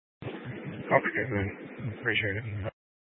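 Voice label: Sheriff Dispatch, scanner audio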